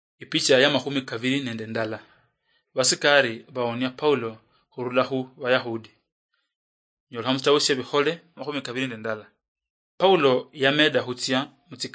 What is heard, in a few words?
acts of the holy spirit luyia marachi, drama